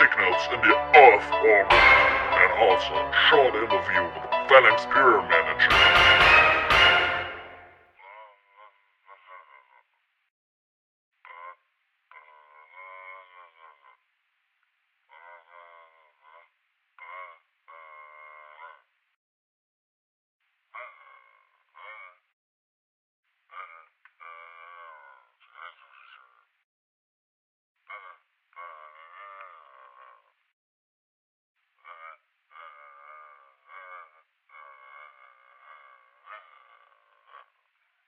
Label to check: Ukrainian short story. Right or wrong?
Wrong